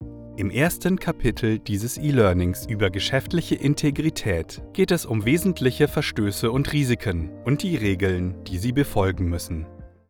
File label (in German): E-Learning, Voiceovers DE